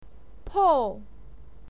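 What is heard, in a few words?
"pou", Mandarin Chinese, fourth tone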